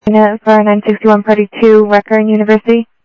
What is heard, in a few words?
Sheriff, scanner audio